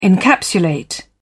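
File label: "encapsulate", British English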